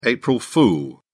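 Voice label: "april fool", British English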